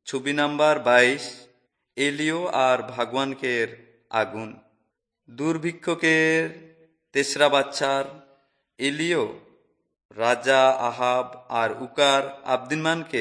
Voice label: servants of god urao, kurukh drama